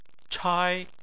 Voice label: "chai1", chinese